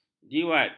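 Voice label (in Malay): "jiwat", Malay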